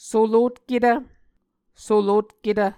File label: "solotketv", Muscogee